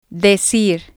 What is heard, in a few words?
"decir", Spanish